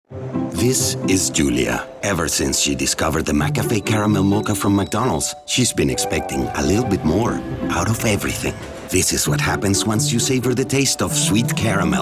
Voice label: voiceover foreign language; chicago; spanish

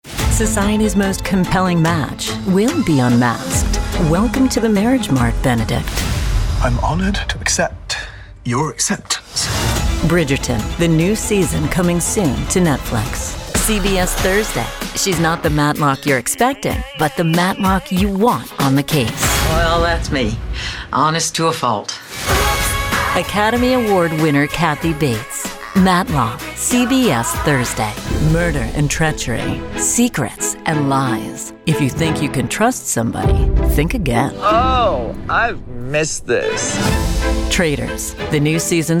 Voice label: voiceover, narration, women; new york

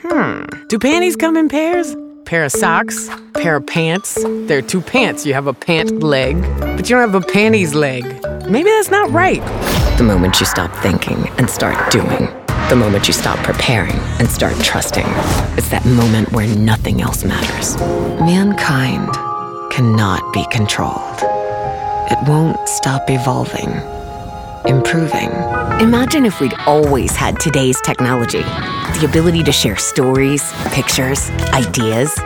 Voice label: Voiceover Commercial Women; Chicago

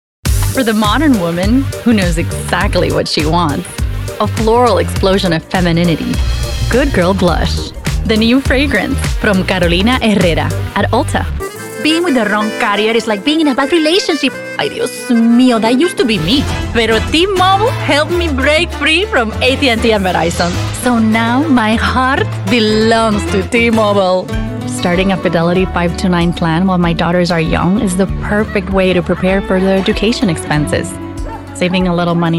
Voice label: Voiceover, Animation; Chicago

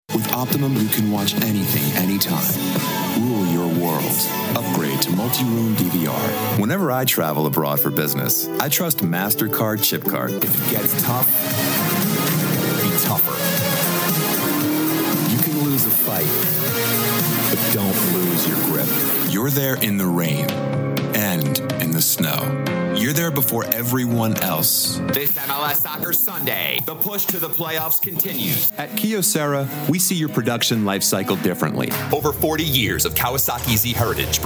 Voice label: Voiceover, Commercial, Men; New York

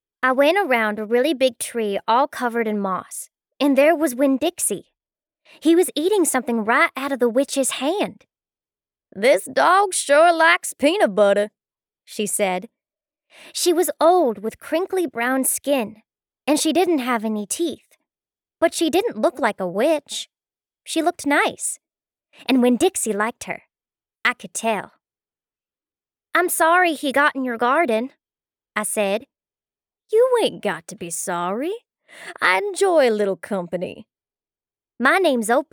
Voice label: voiceover audio books; New York

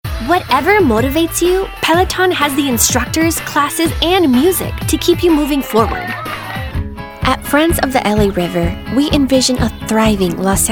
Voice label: Voiceover Foreign Language Spanish; chicago

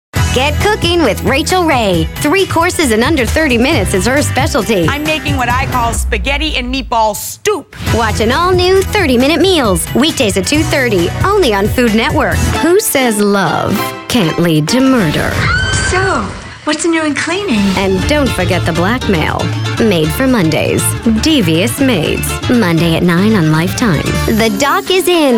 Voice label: Voiceover, Commercial, Women; New York

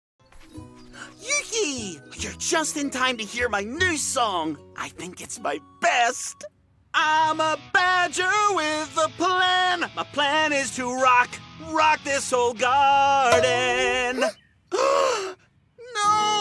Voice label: voiceover, audio books; New York

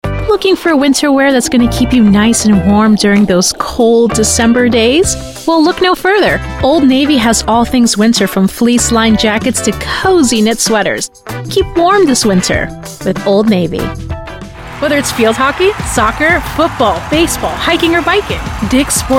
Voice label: Voiceover, Animation; new york

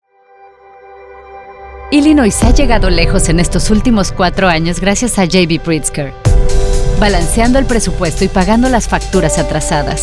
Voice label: Voiceover, Political, Women; chicago

